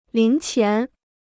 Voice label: "零钱", Chinese

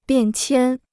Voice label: "变迁", Chinese

